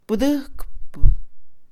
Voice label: ayudar, Mixe, Mujer; INALI

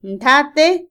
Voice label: engaño, Otomí, mujer; Emociones; INALI

